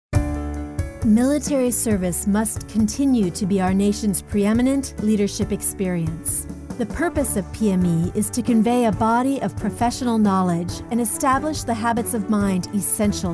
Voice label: Technical Narration

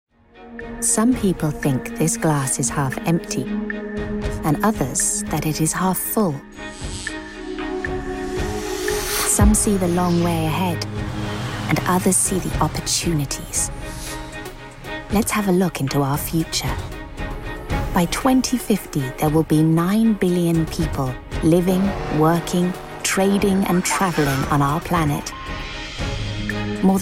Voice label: corporate promo

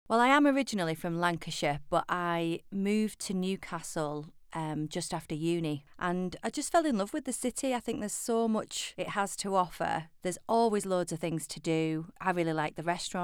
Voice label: Natural

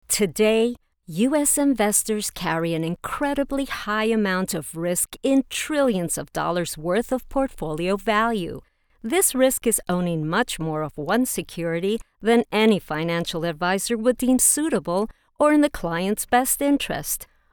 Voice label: Studio Sample

